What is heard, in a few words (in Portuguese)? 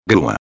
"grua", espanhol